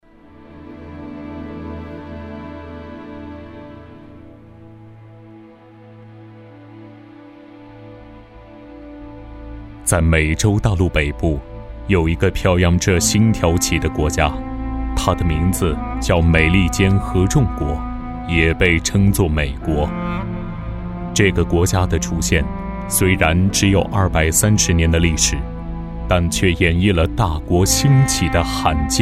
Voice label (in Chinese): 纪录片 人文历史; 大国兴起